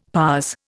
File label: "pas", Indian; Dictionary